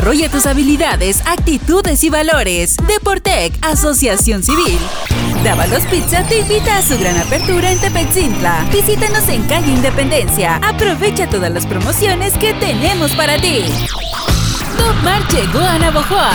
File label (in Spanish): voz femenina